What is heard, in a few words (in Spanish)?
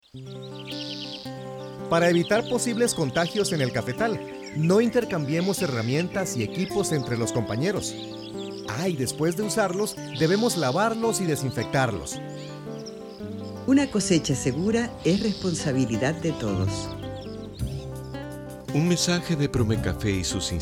Cuña actores; serie La Cosecha IICA covid